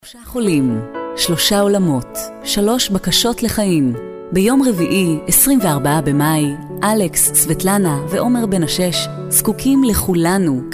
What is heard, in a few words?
female hebrew voice over narrative